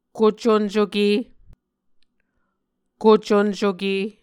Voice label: "koconcoke", Muscogee; Mvskoke Words with Voicing Consonants; A Dictionary of Creek/Muskogee; SIL International; Onvkv Ohhvyayices